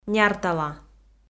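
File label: "няртала", Komi, female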